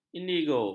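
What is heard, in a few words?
"indigo", Malay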